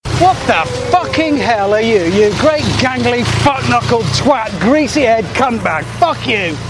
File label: bike swearing; richard hammond